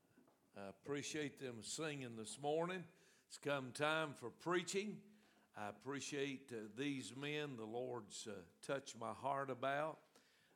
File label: preacher